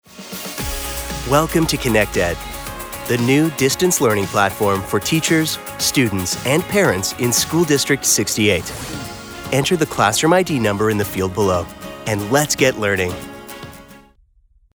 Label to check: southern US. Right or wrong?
Wrong